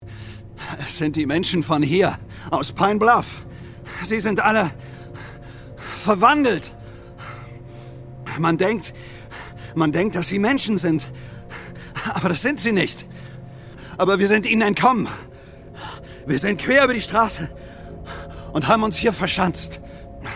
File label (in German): Hoerspiel; Ueberfall